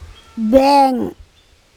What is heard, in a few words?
"beng" mud, andamanese